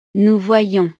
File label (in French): "nous voyons", frances